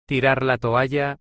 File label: throw in the towel spanish; tirar la toalla